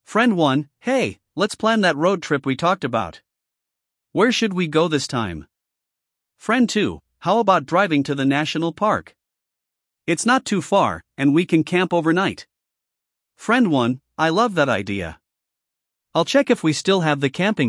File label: Friend conversation; celpip practice test; listening practice test